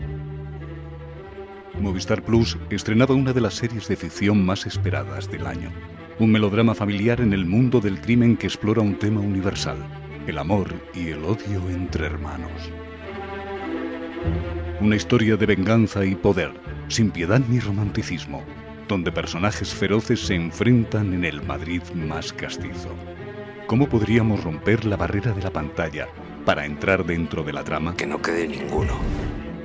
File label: trailer; operacion gigantes